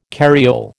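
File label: "carriole", American English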